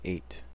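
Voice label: "eight", US English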